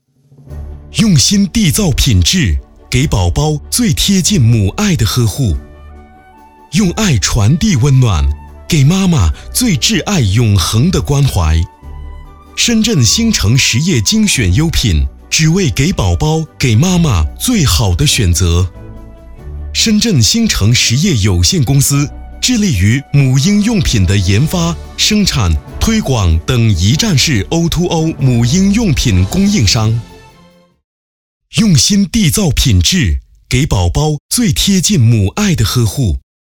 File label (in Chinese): 男 广告 婴儿产品